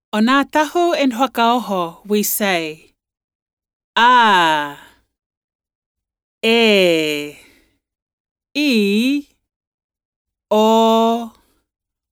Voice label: Gagana Tokelau alphabet female voice